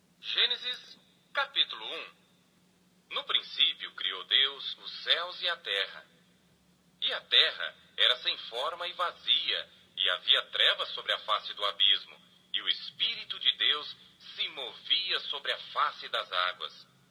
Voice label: Portuguese Biblia